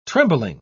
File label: "trembling", pronounce